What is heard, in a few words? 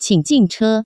qing in che, Taiwan Chinese